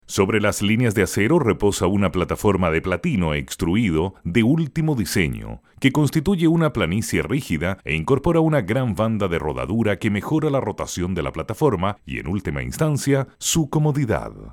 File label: locutor chile chilean voiceover; Chilean male voice over